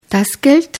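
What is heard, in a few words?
"geld", German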